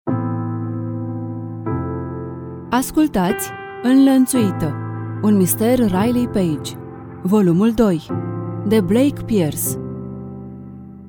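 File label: Romanian, audiobook; GoLocalise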